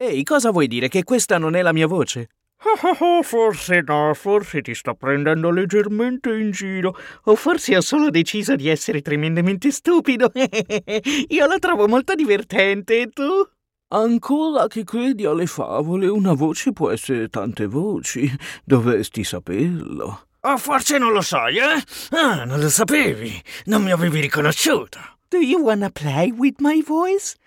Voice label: italian male character